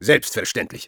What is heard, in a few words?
cheers, de; "Selbstverständlich"; Spy Sprachkommandos